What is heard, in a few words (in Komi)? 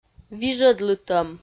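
"вижӧдлытӧм", Komi, female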